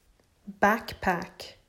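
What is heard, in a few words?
"Backpack", English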